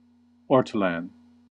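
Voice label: "ortolan", American English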